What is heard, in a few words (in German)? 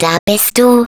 Turret deploy, de